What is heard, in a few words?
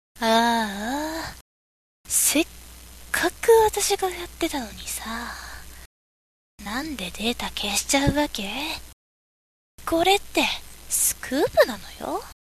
girl